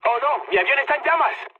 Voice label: on fire, mood high, speech; War Thunder